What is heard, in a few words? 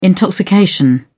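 "intoxication", British English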